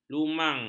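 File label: "lumang", Malay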